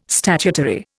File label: "statutory", Indian